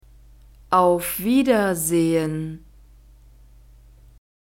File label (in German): auf Wiedersehen, German